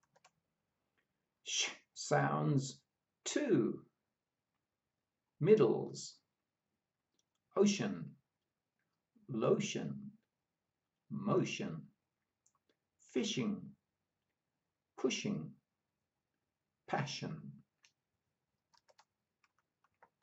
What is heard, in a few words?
SH sounds